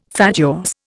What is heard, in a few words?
"fatuous", Indian